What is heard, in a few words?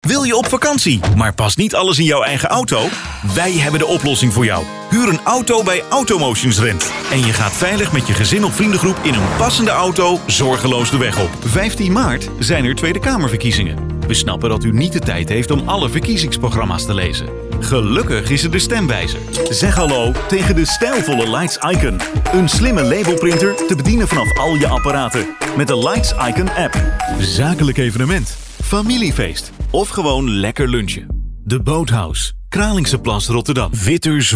Commercials Dutch